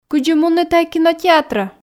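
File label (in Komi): кыдзи мунны тайӧ кинотеатрӧ, Komi, female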